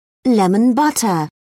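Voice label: "lemon butter", British English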